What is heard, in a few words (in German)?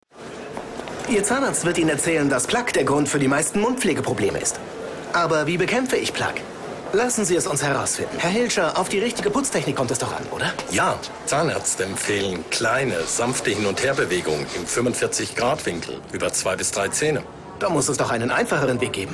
tv werbung; oral b